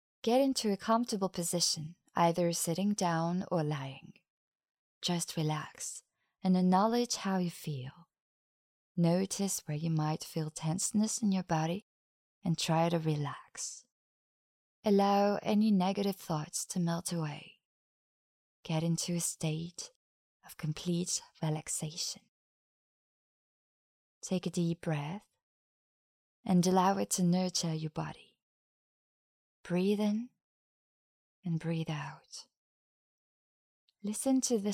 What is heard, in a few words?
EN Guided meditation